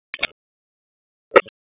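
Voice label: Police Spec Ops Tac, radio; Raleigh; wake; p25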